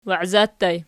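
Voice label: "waiza" ergative plural, Archi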